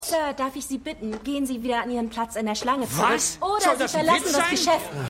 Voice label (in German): Kassiererin; Curb